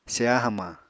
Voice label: "seya hamañ", Apatani